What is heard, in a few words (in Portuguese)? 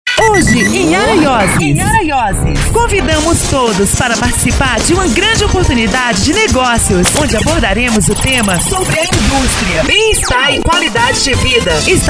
voz spot; hebar life araioses 2012